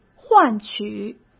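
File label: "换取", Mandarin Chinese; hsk; chinese flashcards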